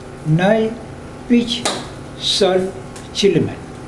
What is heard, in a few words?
my three black pigs, mokilese